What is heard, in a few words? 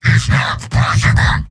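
"no", robot; heavy; mvm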